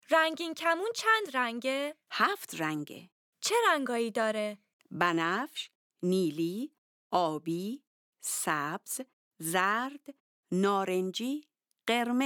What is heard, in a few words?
Dialogue lesson Farsi